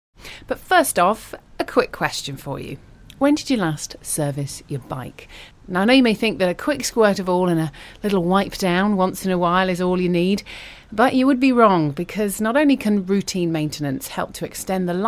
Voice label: UK English Conversational, Female